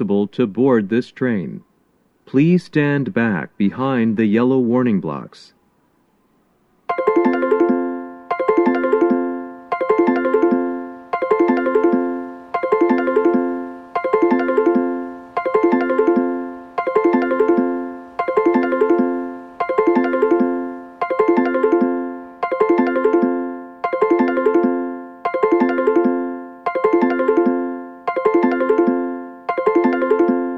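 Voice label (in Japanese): approach announcement pass, 接近放送 男声 回送電車の通過; 放送は「回送電車の通過」です。; 収録者:こたけ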